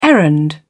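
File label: "errand", British English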